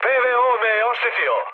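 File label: Serbian damaged aa mood high, speech; War Thunder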